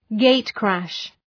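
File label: "gatecrash", English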